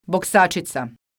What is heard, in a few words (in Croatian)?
"boksacica", croatian